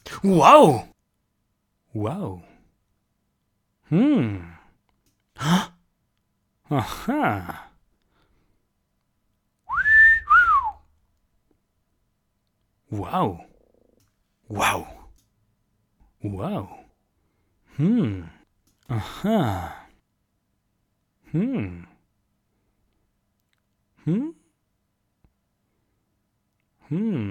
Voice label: Wow Male Surprise Reactions

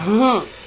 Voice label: Femal Uh

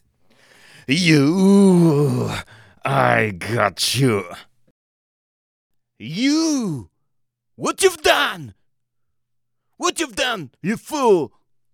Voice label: Male Angry Boss Voice Shouts

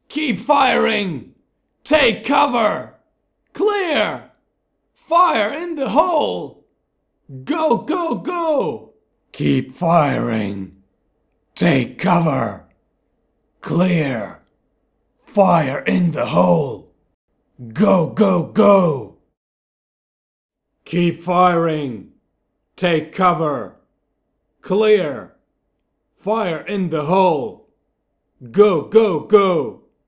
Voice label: Tactical Fps Voice

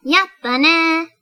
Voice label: "Yay", Japanese

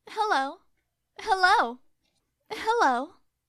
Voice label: "Hello", Neutral

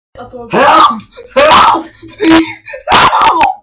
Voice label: Me Yelling Help Bc I Got Kidnapped

